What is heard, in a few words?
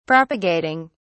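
"propagating", British English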